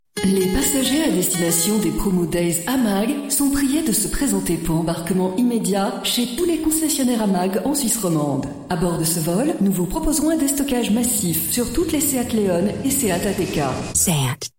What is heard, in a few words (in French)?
Pub radio locale; SEAT